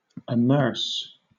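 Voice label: "amerce", British English; pronunciations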